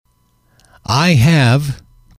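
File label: "I have", English, male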